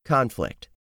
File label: "conflict", English; 3000 words